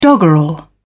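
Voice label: "doggerel", British English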